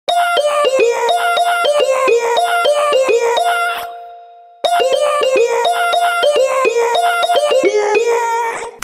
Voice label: WOAH, Viber